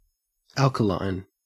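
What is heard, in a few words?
"alkaline", Australian English; pronunciations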